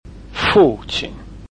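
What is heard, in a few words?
"fu qin", Chinese, tone 4 and neutral tone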